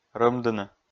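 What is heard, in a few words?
"рӧмдыны", Komi, male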